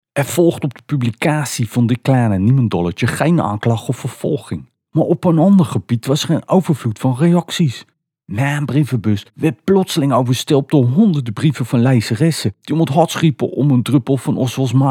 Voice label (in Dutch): Haags